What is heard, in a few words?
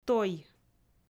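"той", Komi, female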